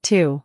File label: "two", voice